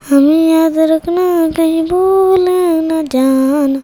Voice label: CHILD VX, Indian Traditions; USB Soundscan vol.45; AKAI